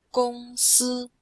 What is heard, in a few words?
"gong1 si1", chinois; Cours-de-Chinois